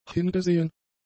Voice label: "hingesehen", German; adjectives